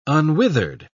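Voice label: "unwithered", English, British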